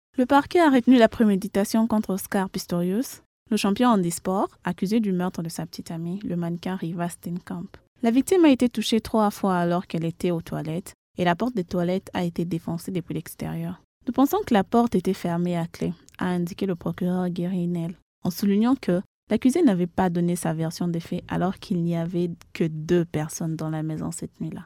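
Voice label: French African, Female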